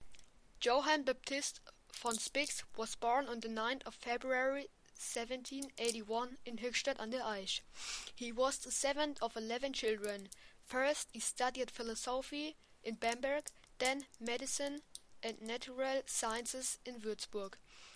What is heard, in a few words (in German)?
englisch; Das Leben unseres Namensgeber in verschiedenen Sprachen und Dialekten.